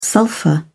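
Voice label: "sulphur", British English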